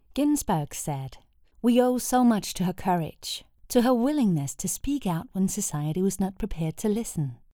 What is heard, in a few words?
Audiobook English; Ginsberg